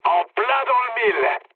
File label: bomb success mood med, speech fr; War Thunder